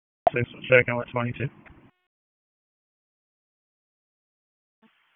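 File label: Dispatch, p25; WSO DISP 1; wake p25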